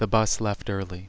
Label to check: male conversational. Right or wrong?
Right